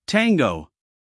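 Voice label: "Tango", American English, guy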